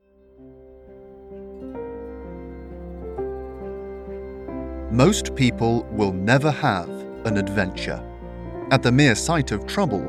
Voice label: Home studio example